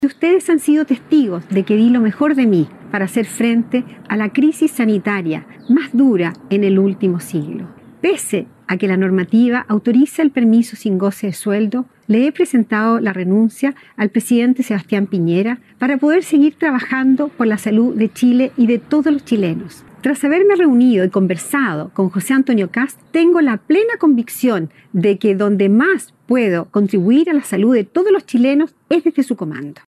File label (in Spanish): cuña